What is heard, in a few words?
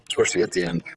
Voice Acting, es